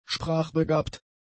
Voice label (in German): "sprachbegabt", German; adjectives